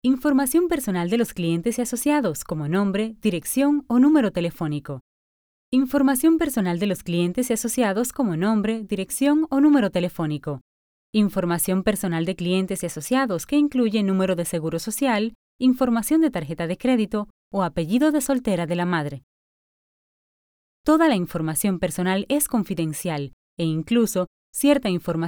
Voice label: Latin American Spanish; foreign languages

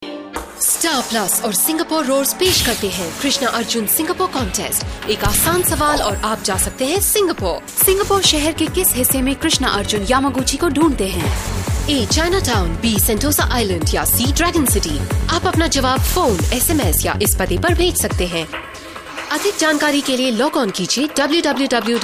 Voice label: Hindi

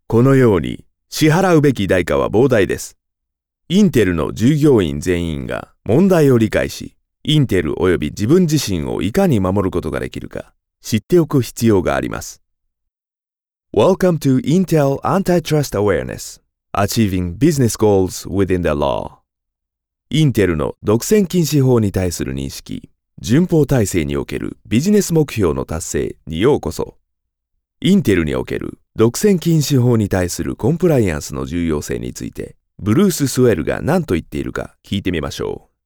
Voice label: Japanese Voice; foreign languages

